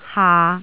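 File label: "haa", Cantonese, tone 6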